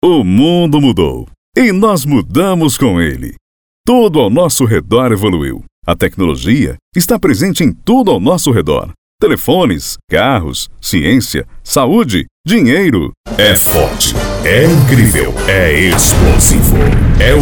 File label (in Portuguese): locutor